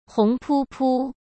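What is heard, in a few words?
"红扑扑", Mandarin Chinese; hsk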